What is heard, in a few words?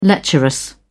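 "lecherous", British English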